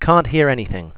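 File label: can't hear anything, German; sgh-d720; AnyVoice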